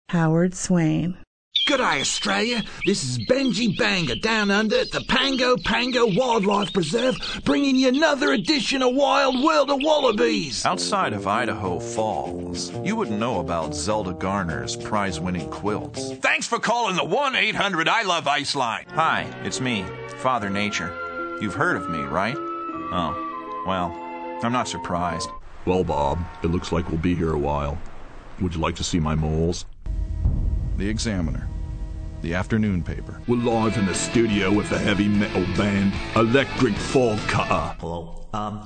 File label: male voice; voice talent; television and radio commercials, industrials, cd-roms, cartoon